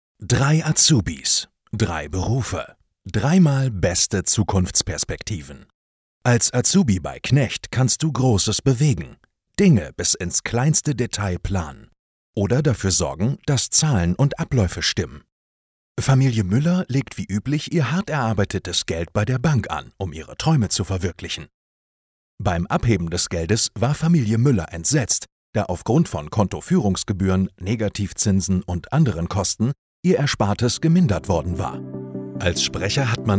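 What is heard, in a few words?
German male; lektoring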